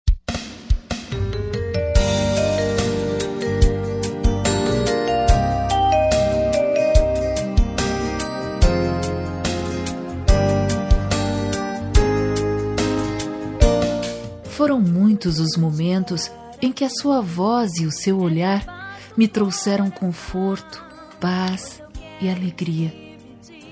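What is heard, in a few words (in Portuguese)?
Evangélica Aniversário de Pai, female, telemensagens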